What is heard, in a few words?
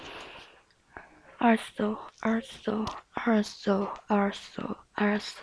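"also", spoken word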